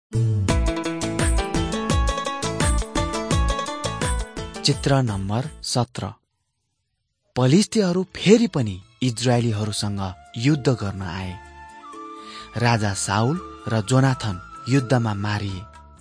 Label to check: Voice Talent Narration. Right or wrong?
Wrong